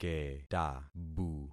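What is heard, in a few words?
"ketabu", concatenated syllables; Affixing experiment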